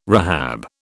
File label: "rehab", British